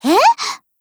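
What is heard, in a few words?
MOOD, Japanese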